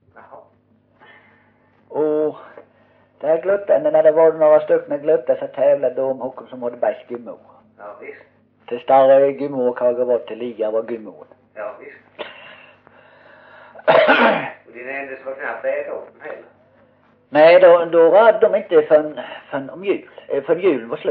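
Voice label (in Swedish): Osby Skåne, svenska sagor dialekter; Folkminnen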